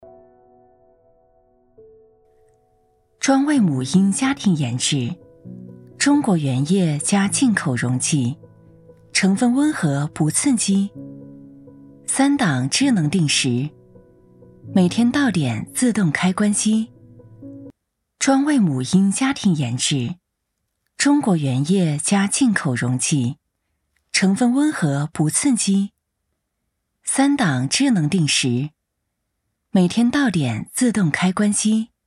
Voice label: 女 广告 温和温馨 知性 母婴; 安妮日记

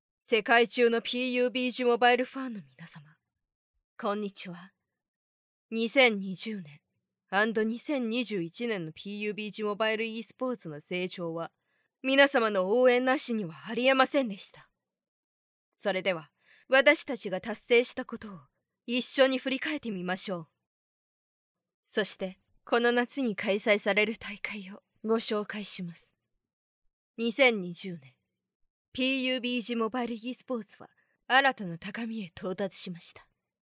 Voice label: 外籍日语女 宣传类

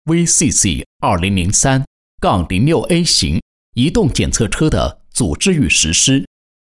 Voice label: 男 医院广告 激情

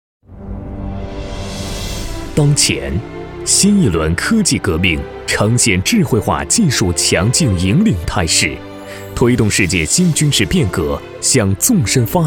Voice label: 男 大气 企业专题

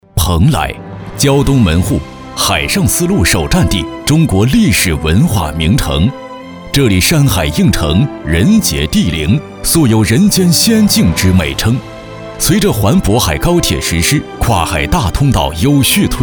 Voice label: 男, 专题; 蓬莱

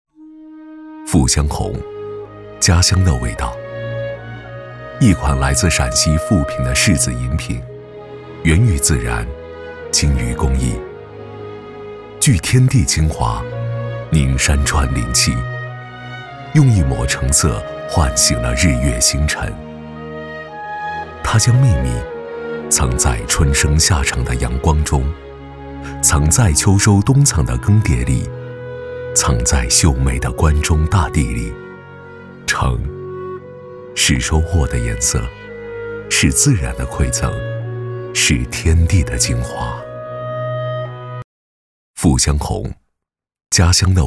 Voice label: 男 走心

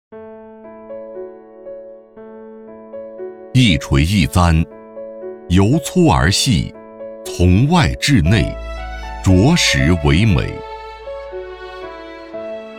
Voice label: 男; 石刻万物; 穿越千年

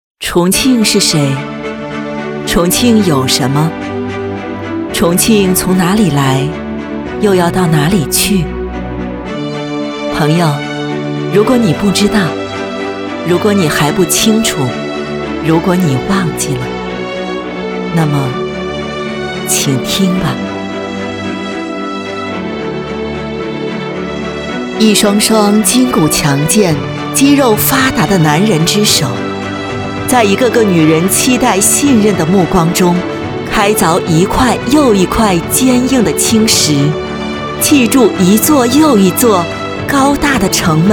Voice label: 女 朗诵; 重庆

